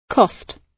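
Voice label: "cost", British English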